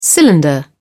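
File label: "cylinder", British English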